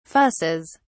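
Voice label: "fusses", British English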